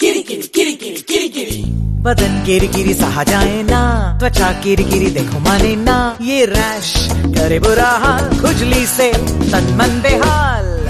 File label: Suthol Hindi